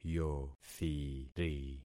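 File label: "yothiri", concatenated syllables; Affixing experiment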